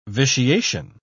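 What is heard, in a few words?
"vitiation", English, British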